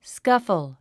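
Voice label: "scuffle", English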